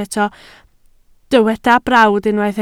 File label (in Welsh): "brawd", Welsh